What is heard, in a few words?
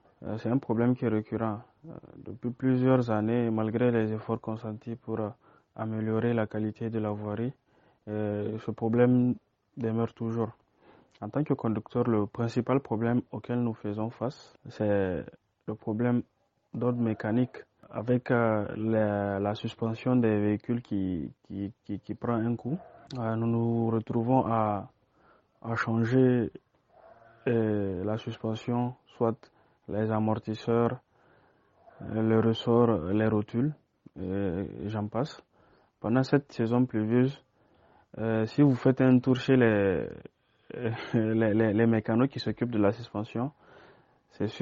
VOX POP; La saison pluvieuse dans la ville de Niamey est synonyme de calvaire pour les habitants de